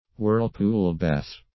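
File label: "whirlpool bath", English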